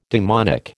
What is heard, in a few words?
"demonic", American